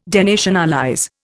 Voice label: "denationalize", Indian